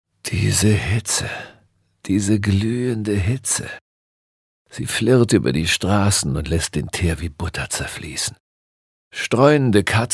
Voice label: Schauspiel Neutral

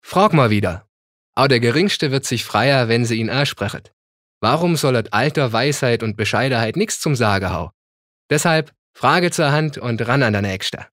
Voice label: Dialekt Schwaebisch

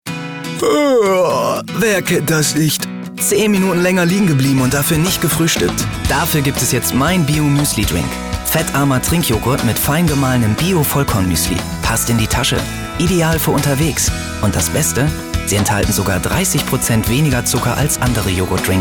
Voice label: W Fremdtake, Freundlich

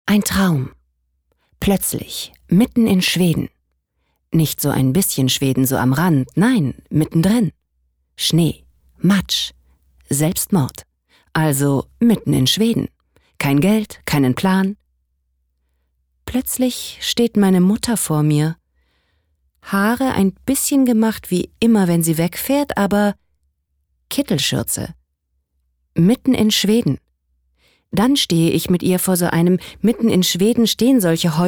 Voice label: Schauspiel Neutral

